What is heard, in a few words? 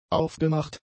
"aufgemacht", German; adjectives